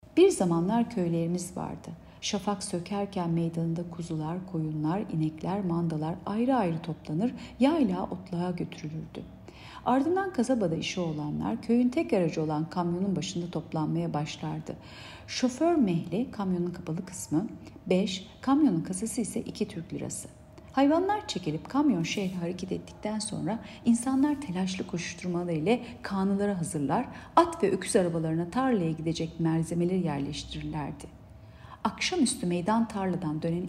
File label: turkce